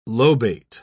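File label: "lobate", English, British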